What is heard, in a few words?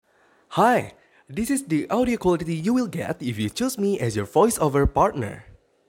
English Formal